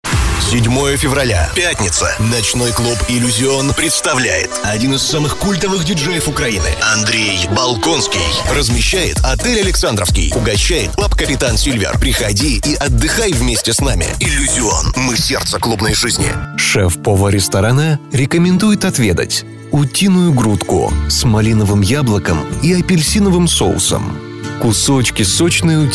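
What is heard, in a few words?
Russian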